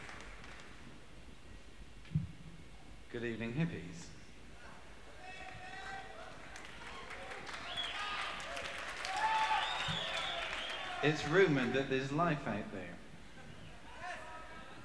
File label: Announcement